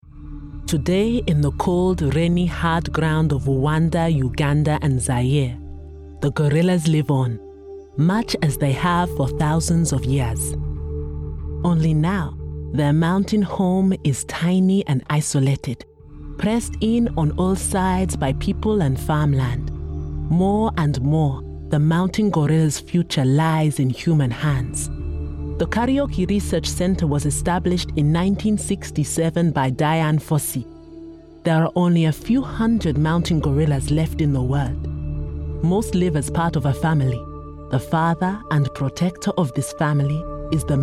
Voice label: Female Ugandan Accent